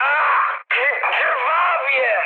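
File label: Polish damaged pilot mood high; speech; War Thunder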